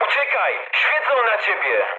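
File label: searchlite mood med, speech Polish; War Thunder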